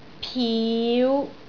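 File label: "piu5", Cantonese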